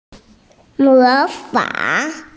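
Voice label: "imitate", Mandarin Chinese, female; mo2fang3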